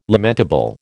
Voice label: "lamentable", American

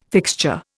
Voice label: "fixture", Indian

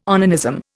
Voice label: "onanism", Indian

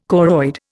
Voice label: "choroid", Indian

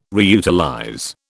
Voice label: "reutilize", British

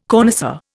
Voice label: "connoisseur", Indian

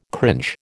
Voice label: "cringe", American

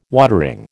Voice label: "watering", American

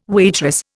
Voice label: "waitress", Indian

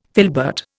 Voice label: "filbert", Indian

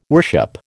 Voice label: "worship", American

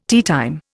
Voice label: "teatime", Indian

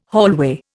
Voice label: "hallway", Indian

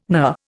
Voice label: "knur", Indian

